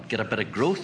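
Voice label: "geD a biD a growth", Northern Irish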